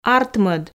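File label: "артмӧд", Komi, female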